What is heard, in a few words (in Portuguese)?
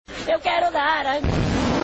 vinheta eu quero dar panico na tv